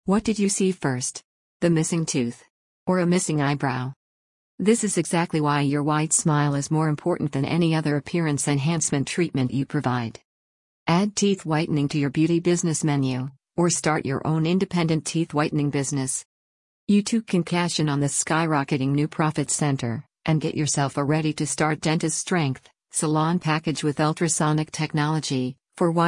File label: Voice over